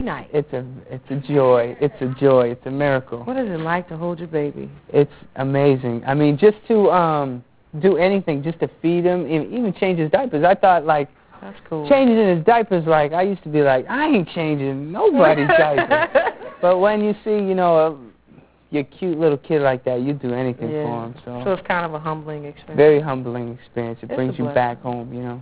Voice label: babytalk